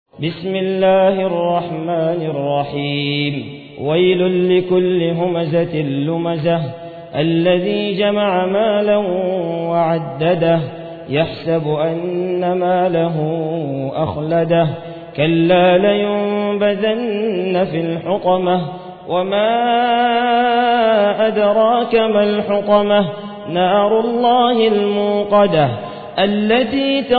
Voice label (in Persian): ترتیل سوره همزه